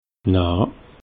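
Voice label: "na", Alsatian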